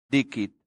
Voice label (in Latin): "dicit", latin; santillana